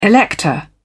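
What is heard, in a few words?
"elector", British English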